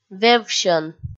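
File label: "вевсьӧн", Komi, female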